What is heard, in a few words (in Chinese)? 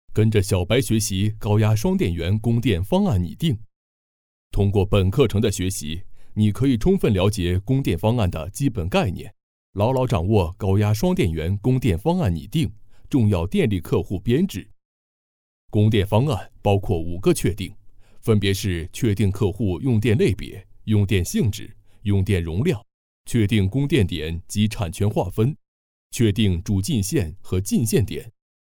男 课件解说; 高压双电源供电方案